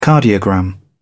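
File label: "cardiogram", British English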